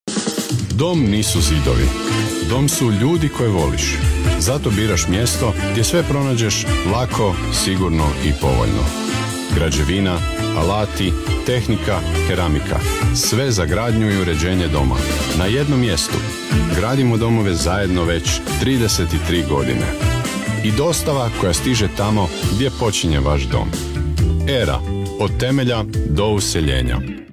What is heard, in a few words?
Commercial, croatian